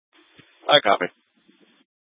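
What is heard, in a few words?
Police Dispatch, Scanner audio; Police 1 Dispatch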